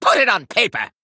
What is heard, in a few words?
Male Gnome Attack